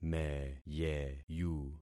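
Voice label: "meyeyu", concatenated syllables; Affixing experiment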